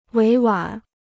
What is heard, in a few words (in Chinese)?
"委婉", Chinese